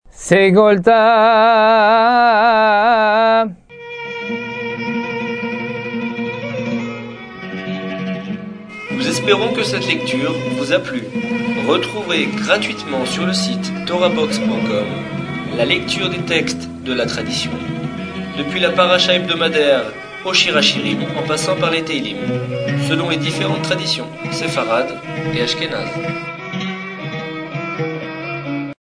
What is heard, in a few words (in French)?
apprentissage taamim selon le rite constantinois